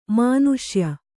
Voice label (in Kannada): "ಮನುಷ್ಯ", Kannada